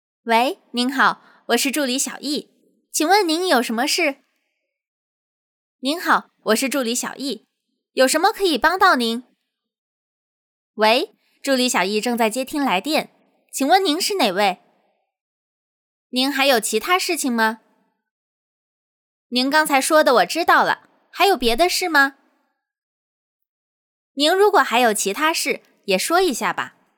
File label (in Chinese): 标注女; 嘟嘟棋